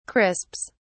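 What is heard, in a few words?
"crisps", British English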